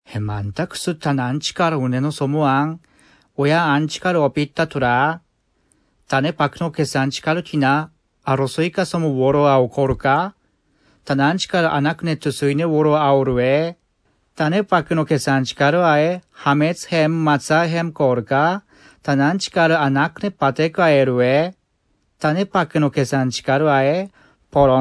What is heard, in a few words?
ainu